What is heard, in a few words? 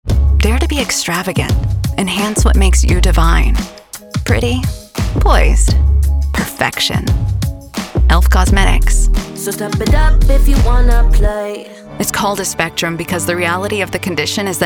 Commercial